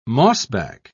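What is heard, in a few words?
"mossback", British English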